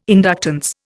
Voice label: "inductance", Indian